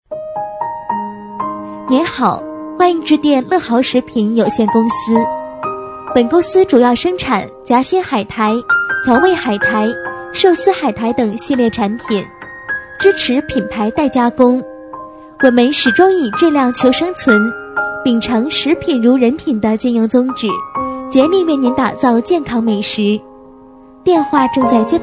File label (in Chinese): 彩铃; 乐豪食品有限公司